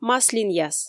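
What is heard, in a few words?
"маслинъяс", Komi, female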